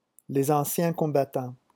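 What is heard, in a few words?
"les anciens", French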